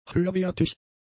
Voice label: "höherwertig", German; adjectives

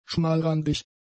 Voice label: "schmalrandig", German; adjectives

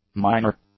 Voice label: "minor", English; nouns

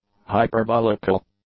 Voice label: "hyperbolical", English; adjectives

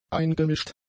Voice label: "eingemischt", German; adjectives

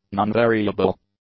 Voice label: "nonvariable", english; adjectives

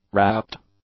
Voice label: "wrapped", english; adjectives

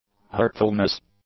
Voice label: "hurtfulness", English; nouns

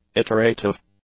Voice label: "iterative", english; adjectives

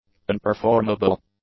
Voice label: "unperformable", english; adjectives

